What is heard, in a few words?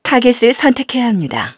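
system voice target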